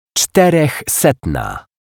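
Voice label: "czterechsetna", Polish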